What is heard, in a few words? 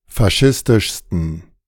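"faschistischsten", German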